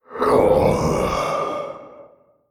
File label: Male Old God Creature Death